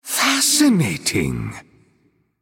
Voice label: male wow

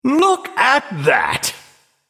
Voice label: male nightborne trigger miracle growth

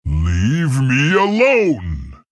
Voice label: male tauren attack

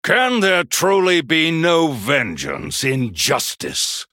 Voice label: male human start

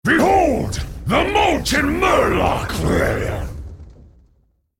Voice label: male murloc elemental; start

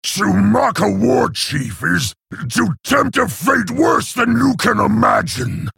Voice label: male orc threaten

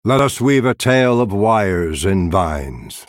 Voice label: male night elf picked

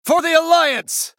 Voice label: male human emote

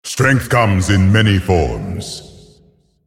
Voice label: beast start, male

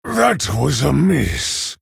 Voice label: male orc ogre oops

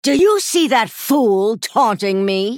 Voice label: female night elf error taunt

